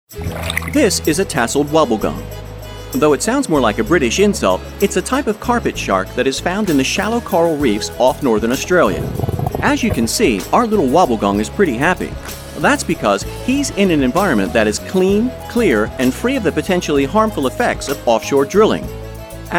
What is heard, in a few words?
Corporate